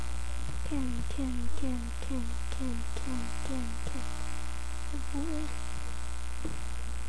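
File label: "can", spoken word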